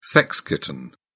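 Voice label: "sex kitten", British English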